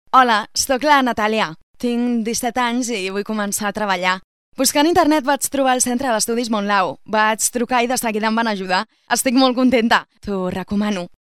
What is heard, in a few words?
locutora adolescente girl voiceover catalonian catalana